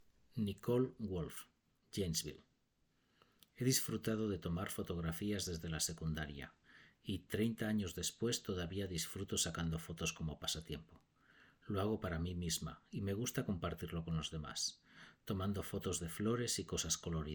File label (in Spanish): Wolf espanol